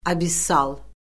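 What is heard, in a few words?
"abissal", Azerbaijani; Orfoqrafiya Lüğəti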